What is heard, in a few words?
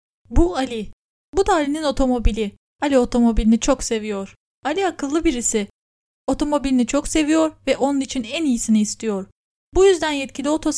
Turkish Introduction Voice over; Lala Web